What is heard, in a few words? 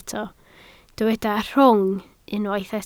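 "rhwng" Welsh, spoken word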